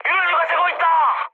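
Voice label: Korean damaged oil mood high, speech; War Thunder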